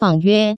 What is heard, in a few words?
"bangyue", Taiwan Chinese